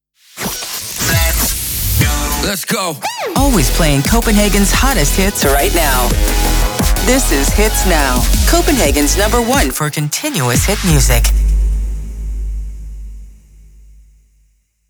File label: Sweeper